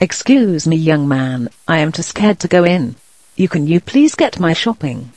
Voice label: old lady start